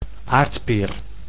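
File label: "aardpeer", Dutch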